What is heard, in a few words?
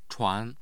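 "chuan", Chinese, second tone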